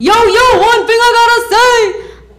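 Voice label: yo yo wudoowutsay, gibberish; Voice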